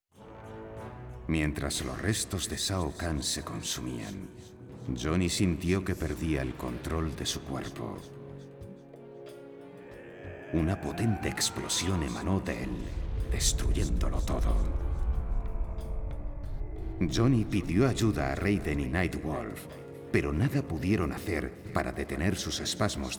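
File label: music voice over endings Spanish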